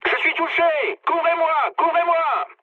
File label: aircraft damaged mood med, speech fr; War Thunder